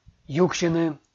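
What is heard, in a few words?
"юксьыны", Komi, male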